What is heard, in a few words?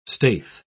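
"staithe", British English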